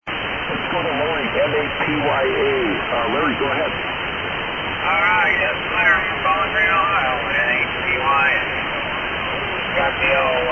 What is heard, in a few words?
Heathkit SB-102 D-104 Mic & SB-200 Amp; Bowling Green, OH.